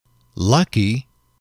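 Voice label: "lucky", English, male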